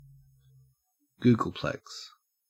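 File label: "googolplex", Australian English; pronunciations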